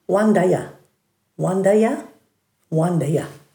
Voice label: "wandaya", Dhurga